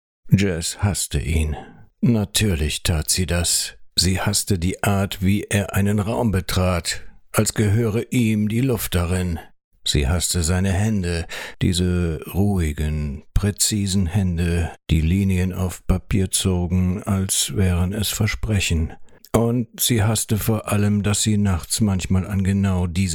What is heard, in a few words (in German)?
Hoerprobe, sprecher